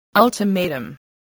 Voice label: "ultimatum", English spelling voice